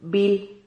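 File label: "bil", Spanish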